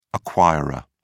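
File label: "acquirer", British English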